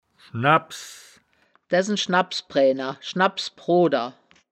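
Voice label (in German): "schnabbs", wittlicher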